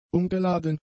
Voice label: "umgeladen", German; adjectives